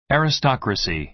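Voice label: "aristocracy", American English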